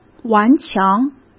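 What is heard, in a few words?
"顽强", Mandarin Chinese; hsk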